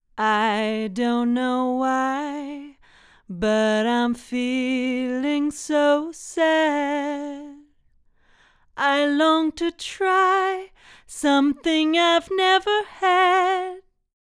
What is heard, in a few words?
LDC vocals U87